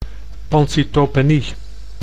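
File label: "pancytopenie", Dutch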